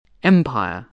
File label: "empire", spelling